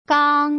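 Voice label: "gang", Mandarin Chinese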